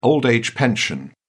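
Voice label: "old-age pension", British English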